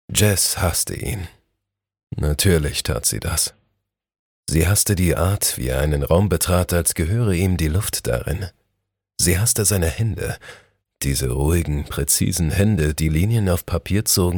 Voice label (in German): Hoerprobe, sprecher